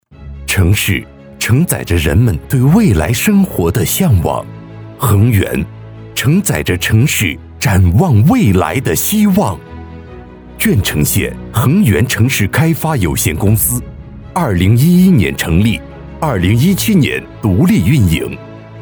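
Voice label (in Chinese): 男【企业宣传片 浑厚大气】; 地产公司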